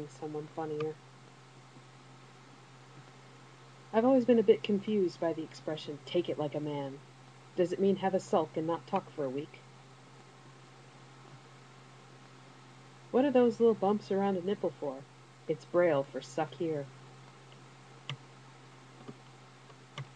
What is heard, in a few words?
top tweets in an American accent